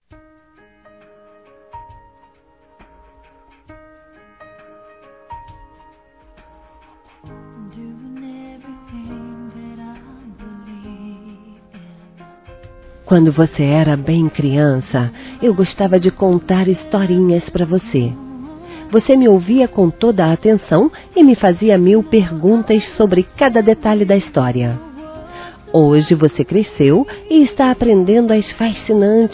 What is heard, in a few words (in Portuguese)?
Neto (a) Adolescente Feminino; Aniversário Infantil; Telemensagens